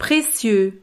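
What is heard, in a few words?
"precieux", French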